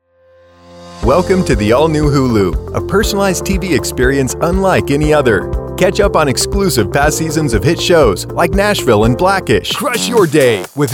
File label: Commercial